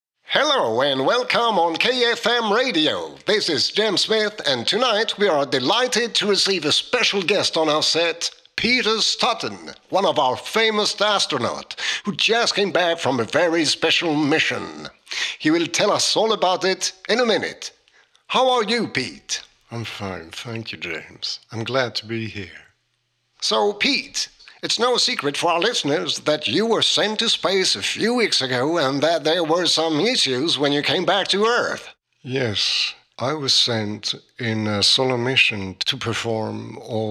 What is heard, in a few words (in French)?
anglais; yggdrasil